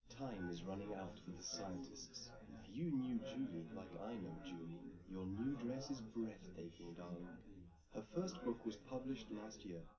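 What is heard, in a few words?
male, live recording; SiSEC11; underdetermined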